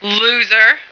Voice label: "loser", female